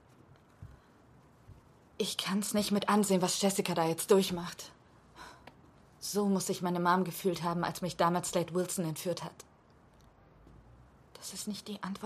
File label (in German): Amerikanischer Thriller